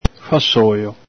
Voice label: "fhasolo", Veneto

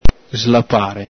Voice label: "slapare", Veneto; vb.intr.; ITA: pappare, mangiar avidamente; el Galepin

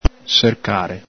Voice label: "sercare", Veneto

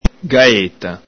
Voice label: "galeta", Veneto

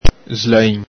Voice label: "slain", Veneto

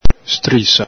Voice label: "strisa", Veneto; N.fem. sing.; ENG: strip; ITA: striscia; el Galepin

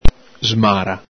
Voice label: "smara", Veneto

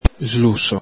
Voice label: "sluso", Veneto; ENG: skinny, thin; ITA: mingherlino, smilzo

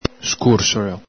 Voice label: "scursolo", Veneto; scùrsoƚo; shortcut; scorciatoia; el Galepin

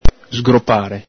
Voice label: "sgropare", Veneto

